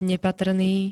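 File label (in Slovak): "nepatrný", Slovenské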